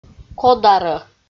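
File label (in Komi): "кодарӧ", Komi, female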